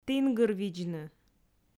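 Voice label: "тінгырвидзны", Komi, female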